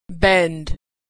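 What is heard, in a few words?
"bend", American English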